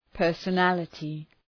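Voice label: "personality", English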